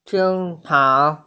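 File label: "jing3cha2", Mandarin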